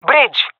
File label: loc bridge, jungle fem epic; vo; agents; Source Model Packs; Counter Strike Model Pack; CS2